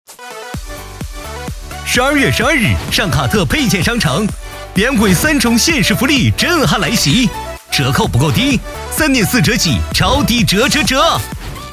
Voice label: 线下 促销

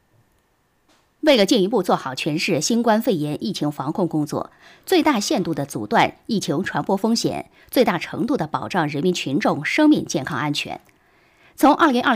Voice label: 新闻配音

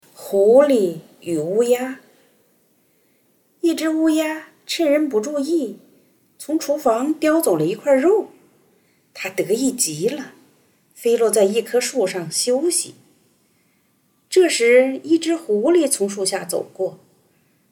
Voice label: 儿童故事; 狐狸与乌鸦

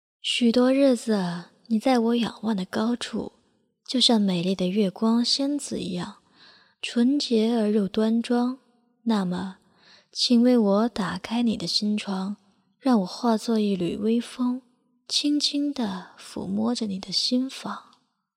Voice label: 样音去噪音高频

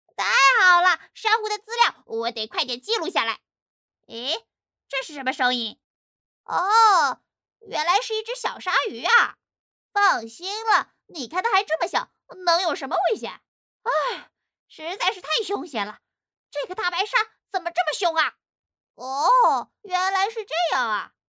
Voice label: 动画小鸭子样音

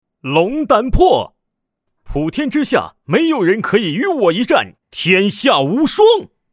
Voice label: 角色, 男; 赵云

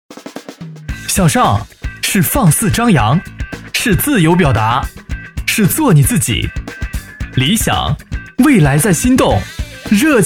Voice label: 轻快年轻积极; 小米

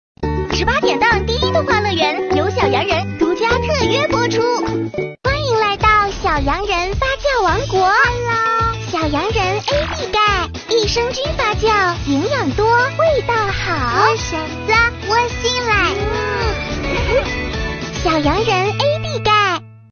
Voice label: 女童; 小洋人AD钙

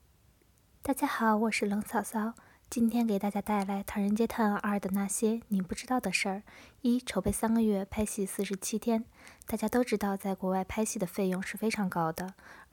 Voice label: 电影解说

